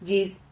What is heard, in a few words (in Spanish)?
"llid", Silabas